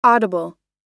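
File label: "audible", American English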